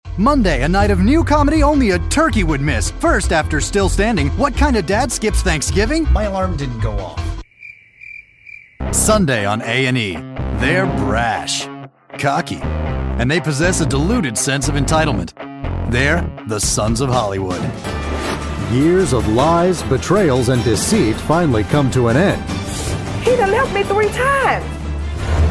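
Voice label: promo, Voiceover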